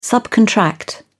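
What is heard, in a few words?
"subcontract", British English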